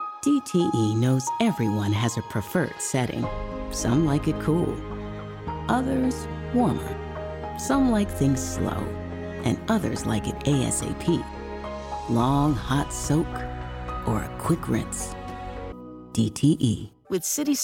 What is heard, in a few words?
commercial